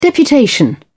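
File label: "deputation", English, British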